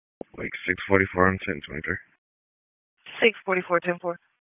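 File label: Sheriff's Office Dispatch; WSO DISP 1; wake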